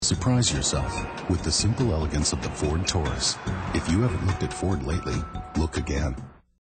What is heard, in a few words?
commercial; ford taurus